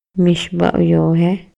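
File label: Maya, male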